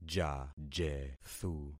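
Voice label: "jajethu", concatenated syllables; Affixing experiment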